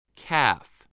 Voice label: "calf", spelling